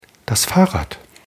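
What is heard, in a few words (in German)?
"fahrrad", German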